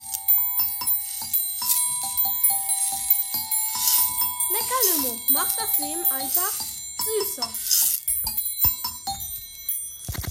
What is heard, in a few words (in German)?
Radio Werbung